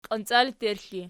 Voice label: "qiancalerxli", Archi